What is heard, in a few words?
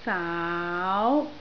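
"saau2" Cantonese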